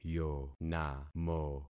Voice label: "yonamo", concat syllables; Affixing experiment